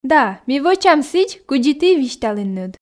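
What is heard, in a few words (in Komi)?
да ми вӧчам сідз кыдзи ті висьталінныд, Komi, female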